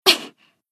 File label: Battle Shout; Hinata